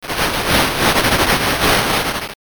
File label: whisper